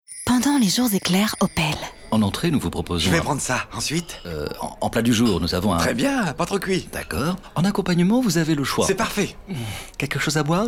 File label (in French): Guindé; Opel